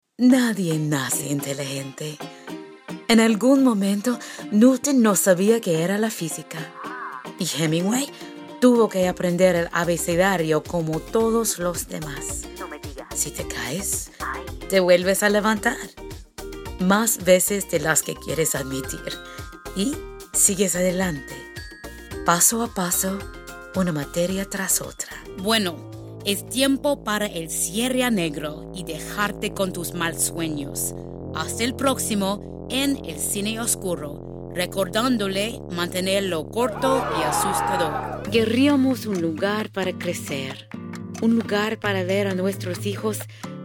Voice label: SPANISH